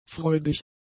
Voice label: "freudig", German; adjectives

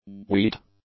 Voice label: "wheat", English; nouns

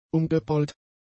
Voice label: "umgepolt", German; adjectives

